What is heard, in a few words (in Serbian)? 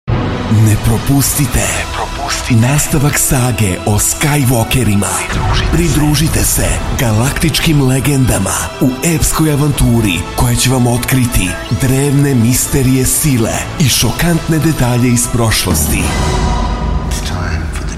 radio reklama; star wars poslednji dzedaji